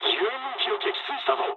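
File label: Japanese player killed ally mood low; War Thunder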